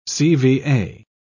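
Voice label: "cva", British English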